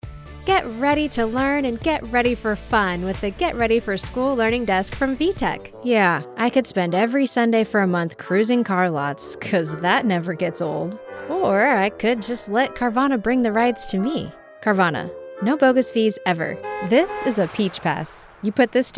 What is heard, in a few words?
commercial vo; demo